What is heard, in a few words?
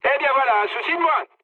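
French player killed enemy mood high; War Thunder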